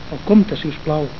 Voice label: "el compte", Catalan